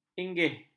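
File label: "inggih", Malay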